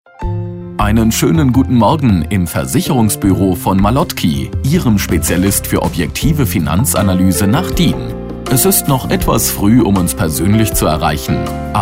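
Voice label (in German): Ansage Montag vor Öffnungszeiten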